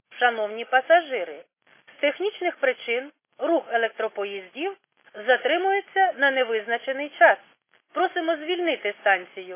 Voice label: khs st female, subway station